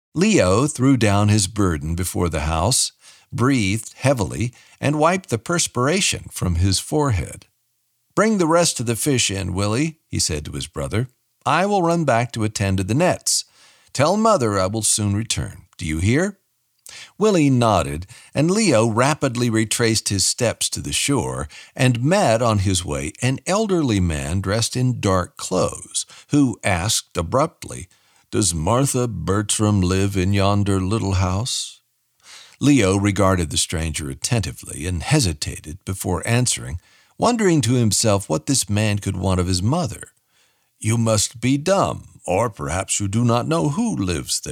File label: Audiobook Sample; Brave Heart